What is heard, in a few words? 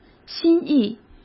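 "心意", Mandarin Chinese; hsk; chinese-flashcards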